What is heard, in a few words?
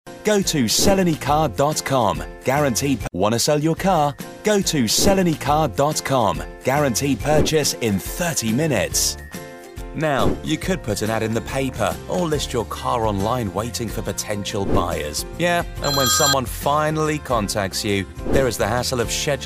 english uk male commercial